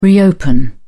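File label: "reopen", English, British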